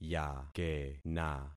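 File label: "yakena", concatenated syllables; Affixing experiment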